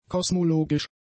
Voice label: "kosmologisch", German; adjectives

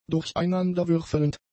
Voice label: "durcheinanderwürfelnd", German; adjectives

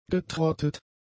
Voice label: "getrottet", german; adjectives

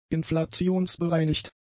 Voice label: "inflationsbereinigt", German; adjectives

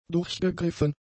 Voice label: "durchgegriffen", German; adjectives

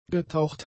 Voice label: "getaucht", german; adjectives

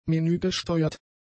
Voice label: "menügesteuert", German; adjectives

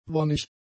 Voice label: "wonnig", German; adjectives

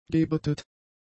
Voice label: "gebetet", German; adjectives

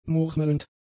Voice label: "murmelnd", German; adjectives

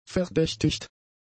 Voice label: "verdächtigt", German; adjectives

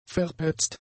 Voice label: "verpetzt", german; adjectives

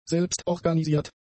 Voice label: "selbstorganisiert", German; adjectives

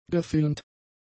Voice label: "gefilmt", German; adjectives

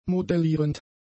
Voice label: "modellierend", German; adjectives

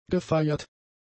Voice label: "gefeiert", German; adjectives

